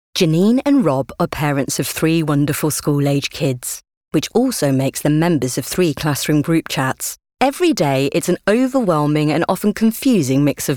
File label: Commercial; Matalan